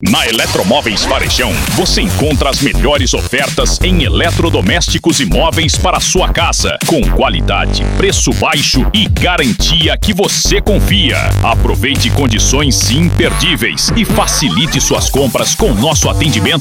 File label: Spot Simples; Eletromoveis